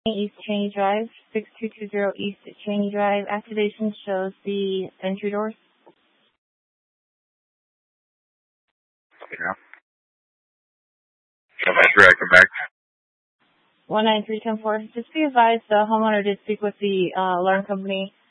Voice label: Dispatch, scanner